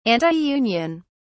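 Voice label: "antiunion", British English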